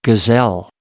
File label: "gazelle", us